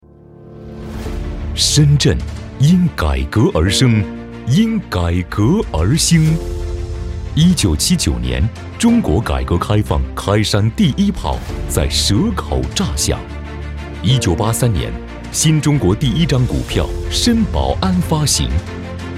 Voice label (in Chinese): 男 大音 城市; 深圳